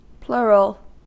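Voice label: "plural", US English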